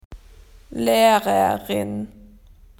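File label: "teacher", Australian English; PictureDictionary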